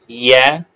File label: short voyels arabic alphabet